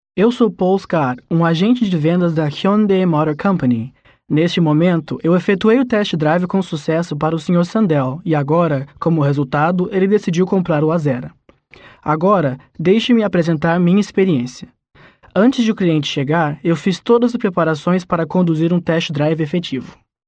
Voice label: Portuguese BRA M